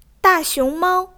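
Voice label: "da4 xiong2 mao1", Chinese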